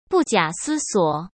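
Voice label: "不假思索", Mandarin Chinese; hsk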